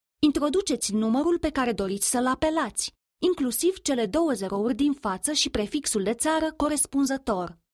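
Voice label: ROM F telecom, Romanian, female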